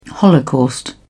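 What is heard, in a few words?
"holocaust", British English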